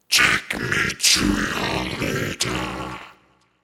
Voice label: Take Me To Your Leader, Alien voice saying 'Take Me To Your Leader'.